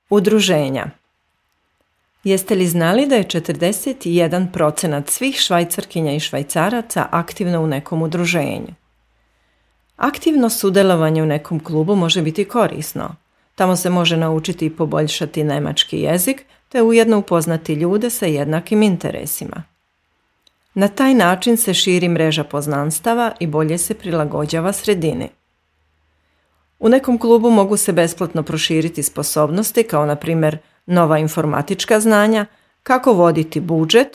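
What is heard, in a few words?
Serbian; vereine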